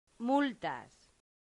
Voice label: "multas", latin; santillana